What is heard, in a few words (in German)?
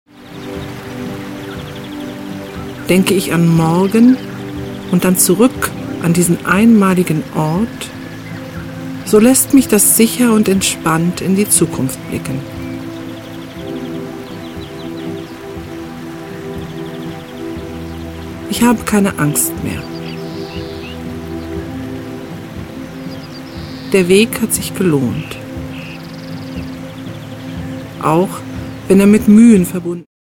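wasserfall meines lebens weiblich; Orte der Entspannung; Phantasiereisen; Tiefenentspannung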